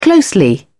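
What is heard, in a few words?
"closely", British English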